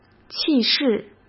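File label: "气势", Mandarin Chinese; hsk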